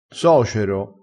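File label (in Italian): "socero", vocabolario dialettale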